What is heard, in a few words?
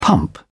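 "pump", British English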